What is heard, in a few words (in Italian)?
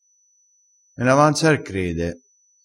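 "me n avanza", dialettale crespinese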